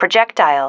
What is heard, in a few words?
"projectile", female